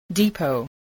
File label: "depot", English